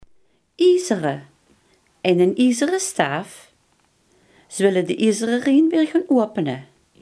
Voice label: "ijzeren", Limburgs

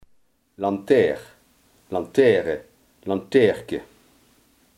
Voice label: "straatlantaarn", Limburgs

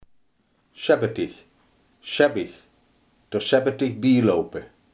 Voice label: "sjofel", Limburgs

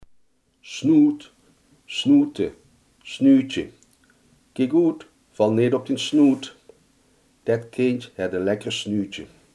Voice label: "snuit", Limburgs

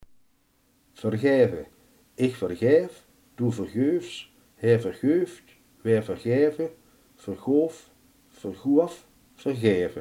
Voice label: "vergeven", limburgse

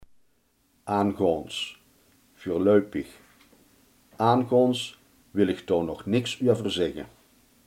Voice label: "voorlopig", limburgse

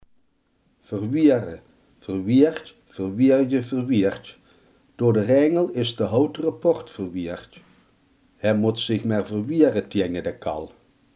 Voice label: "verweren", limburgse

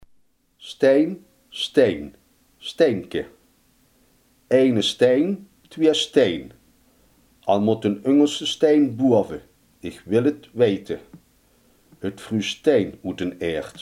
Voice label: "steen", Limburgs

